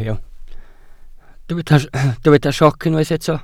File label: "sioc", Welsh